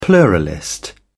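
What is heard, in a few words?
"pluralist", British English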